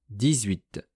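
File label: "dix-huit", French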